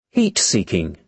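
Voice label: "heat-seeking", British English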